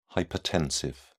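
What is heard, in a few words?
"hypertensive", British English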